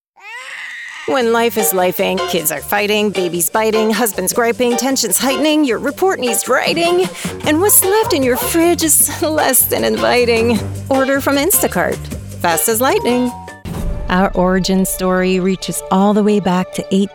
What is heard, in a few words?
Commercial VO